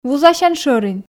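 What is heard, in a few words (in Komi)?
вузасян шӧрин, Komi, female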